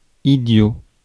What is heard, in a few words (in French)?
"idiot", francais